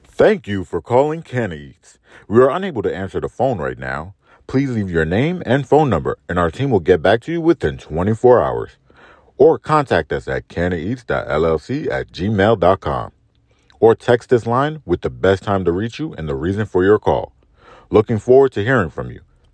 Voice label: Voicemail

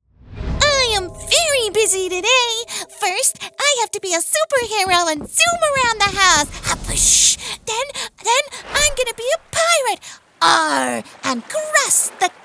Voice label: Animation Mini

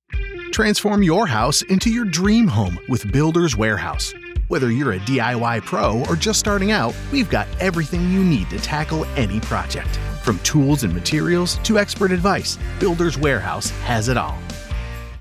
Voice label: Commercial Sample; male voice actor located in central Kentucky; Builders Warehouse

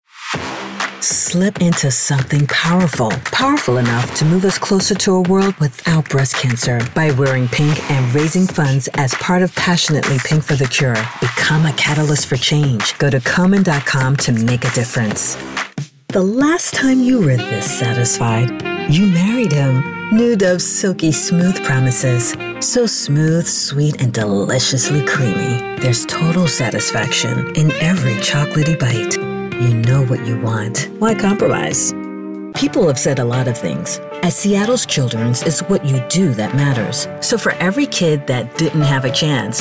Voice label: Professional Female Voice Over Actor; distinctive low register

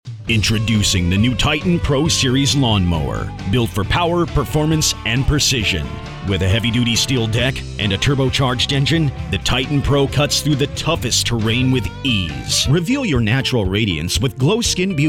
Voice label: Commercial

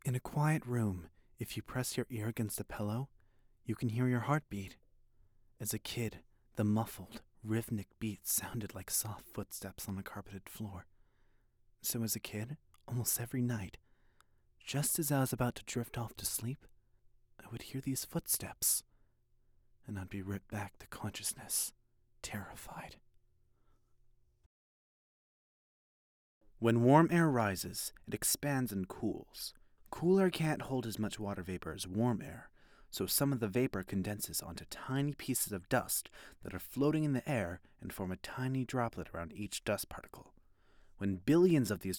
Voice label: Narration

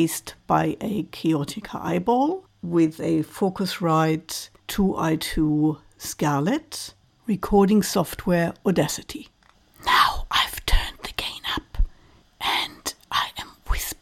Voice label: Raw Homestudio Sample

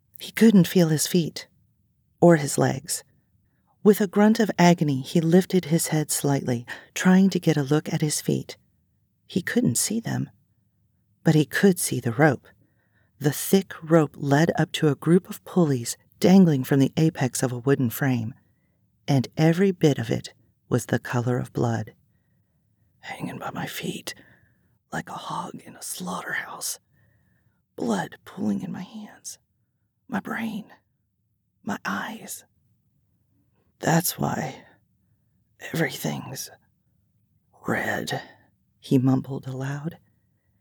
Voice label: Thriller Multiple accents

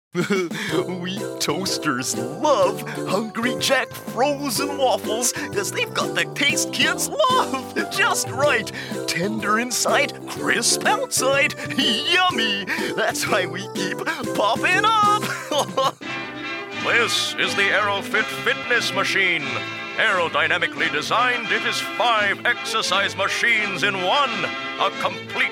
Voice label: Commercial Characters

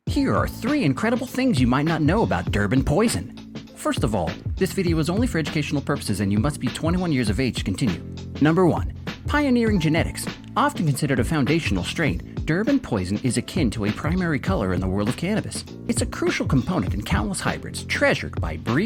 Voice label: Explainer, Voice Actor

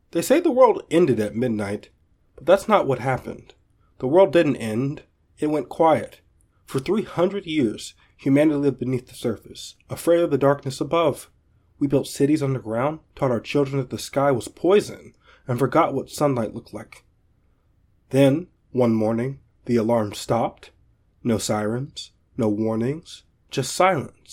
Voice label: narration

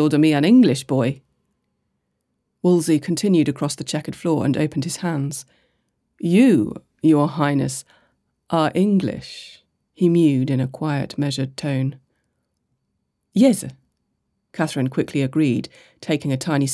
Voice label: Sample Spanish accent; Katherine of Aragon

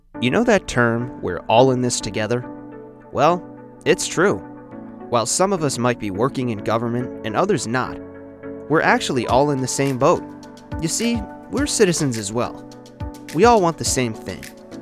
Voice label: Explainer Narrator

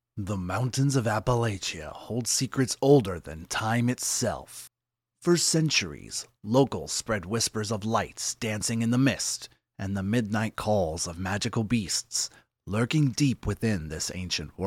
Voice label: Movie style Trailer

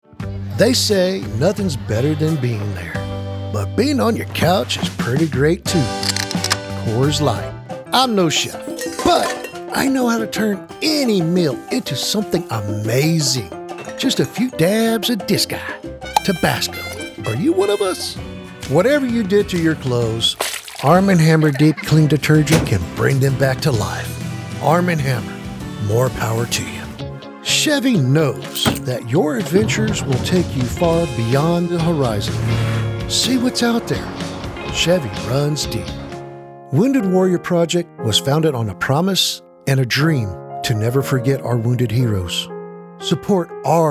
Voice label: Male Voice Over

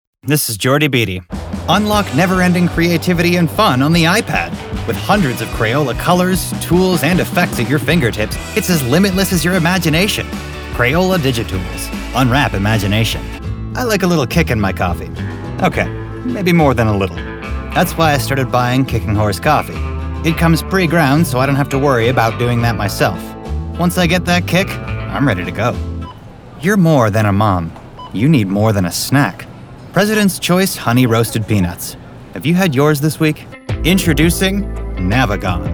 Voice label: Commercial, Millenial male; Voice over artist, Millenial male sound

